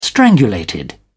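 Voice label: "strangulated", British English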